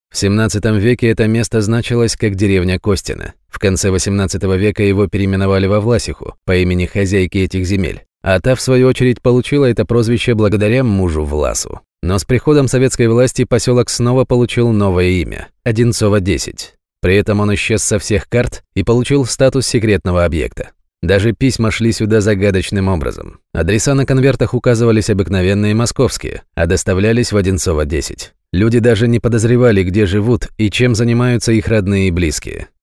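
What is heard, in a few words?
Russian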